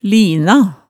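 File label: "lina", Hemsedal dialect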